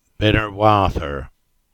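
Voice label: "Bynnerwartha", English; place-names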